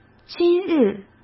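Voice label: "今日", Mandarin; chinese flashcards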